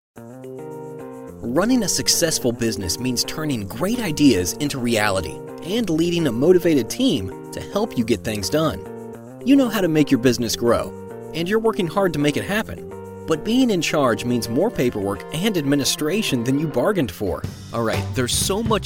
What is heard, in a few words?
Web Explainer